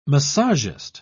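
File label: "massagist", British English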